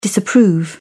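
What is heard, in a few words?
"disapprove", British English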